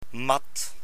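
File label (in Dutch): "mat", Dutch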